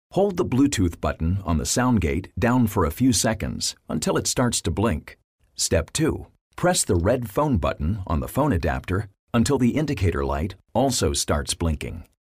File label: Consumer Electronics Tutorial